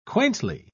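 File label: "quaintly", English, British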